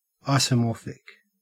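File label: "isomorphic", Australian English; pronunciations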